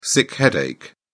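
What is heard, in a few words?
"sick headache", British English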